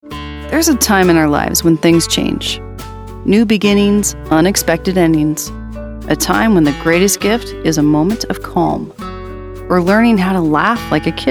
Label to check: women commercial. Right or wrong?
Right